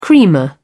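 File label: "creamer", English, British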